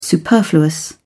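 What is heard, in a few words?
"superfluous", English, uk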